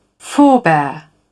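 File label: "forebear", en, uk British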